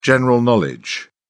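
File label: "general knowledge", British English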